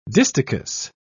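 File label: "distichous", British English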